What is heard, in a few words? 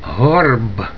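"horb" Ukrainian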